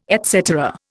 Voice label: "et cetera", Indian